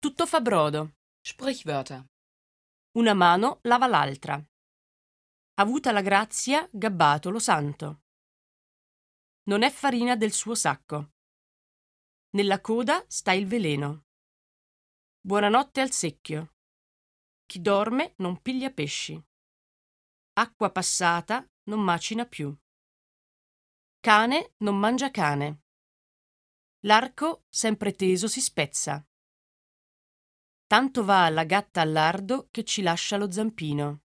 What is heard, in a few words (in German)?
italienisch, Aussprache Trainer; reise know how; Hoerbuch-Serie